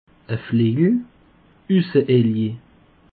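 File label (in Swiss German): "usseailier", Alsatian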